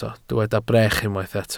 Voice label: "brech", welsh